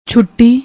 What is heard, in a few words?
"chhoti", Punjabi; ਛੁੱਟੀ